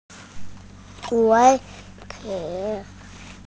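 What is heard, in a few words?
"shui3 chi2", Mandarin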